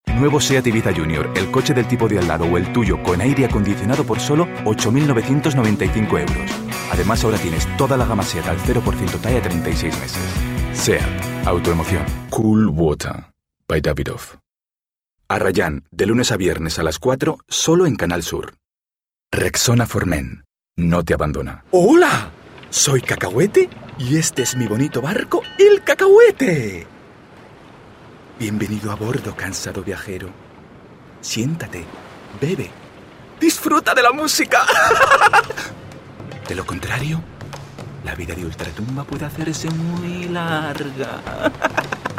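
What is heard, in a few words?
Spanish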